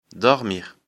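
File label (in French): "dormir", French; lecture fr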